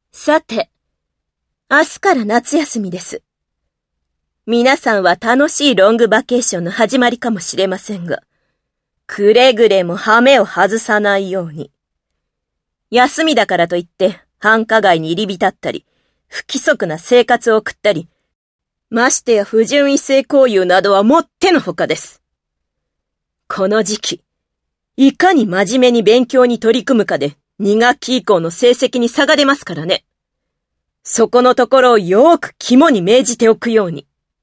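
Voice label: adult teacher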